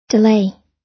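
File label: "delay", American English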